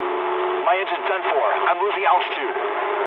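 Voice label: damaged engine mood low, speech English; War Thunder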